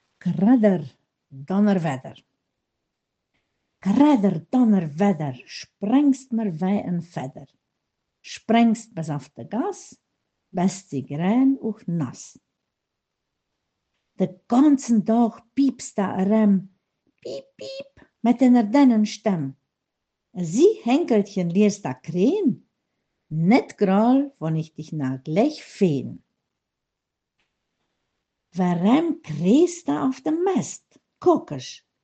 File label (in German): kinderverse, mundart; Kinderecke; Krädder, Dånnerwädder